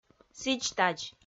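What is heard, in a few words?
"сідз-тадз", Komi, female; audio dict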